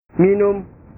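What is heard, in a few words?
"minum", Indonesian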